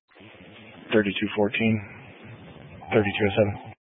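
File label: Incident Tac 3, Scanner audio recording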